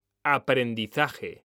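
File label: "aprendizaje", Spanish, male; mekanta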